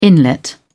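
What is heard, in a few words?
"inlet", British English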